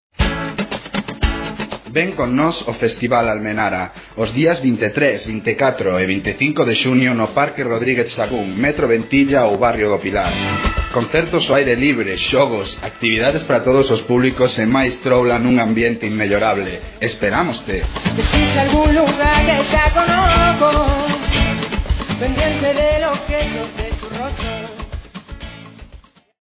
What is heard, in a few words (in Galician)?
promo galego